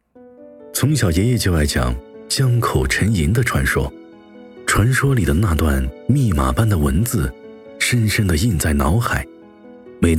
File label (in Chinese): 高端叙事